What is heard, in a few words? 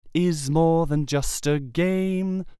Sibilance Pencil Trick